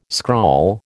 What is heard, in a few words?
"scrawl", American